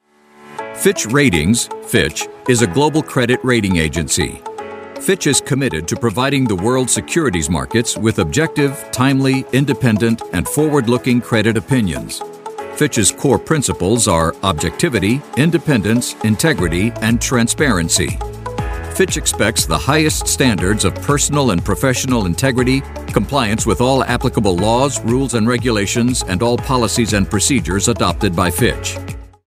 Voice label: american corporate